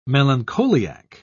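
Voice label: "melancholiac", British English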